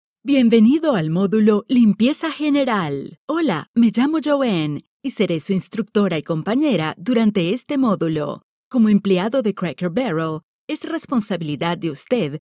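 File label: Spanish, e-learning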